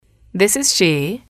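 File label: "this is she", English, female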